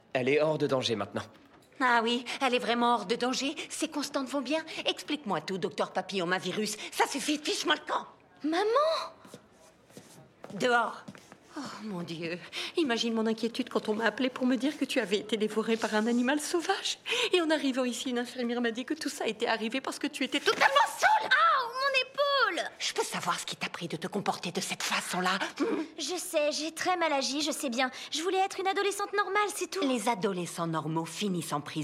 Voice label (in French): doublage; never have i ever